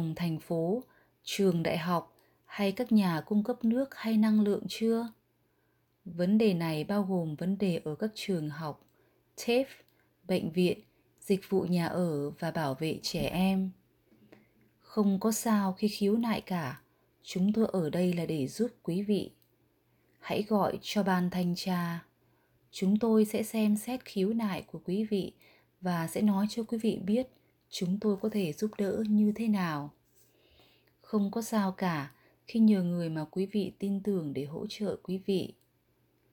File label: Vietnamese